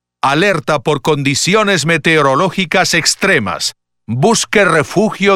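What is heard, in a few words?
Severe Weather (Spanish); Pathfinder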